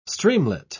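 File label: "streamlet", English, British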